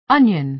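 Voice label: "onion", American English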